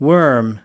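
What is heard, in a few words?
"worm", US English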